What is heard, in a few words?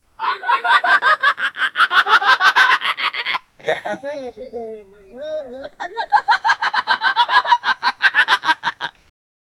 9 year old african, father